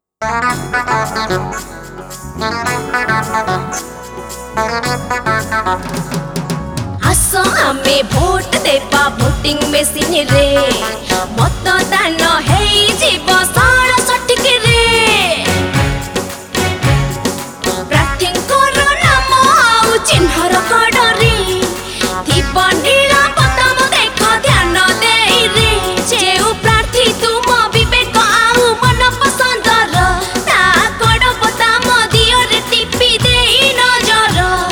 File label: jingle; SVEEP; CEO; ODIYA; EVM